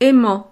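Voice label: "aimant", French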